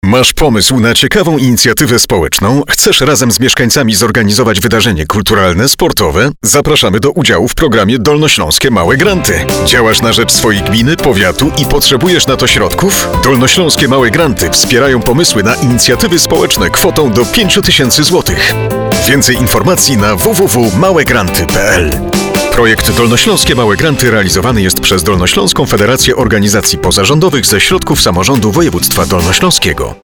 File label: Radio Spot